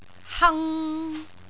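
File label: "hang3", Cantonese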